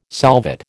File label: "solvate", American